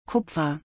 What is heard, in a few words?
Copper color in German